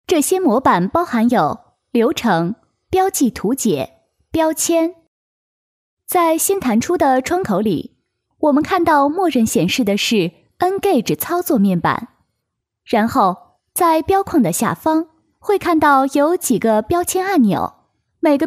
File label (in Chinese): 培训课件 入门培训课程, 女; Engage入门培训课程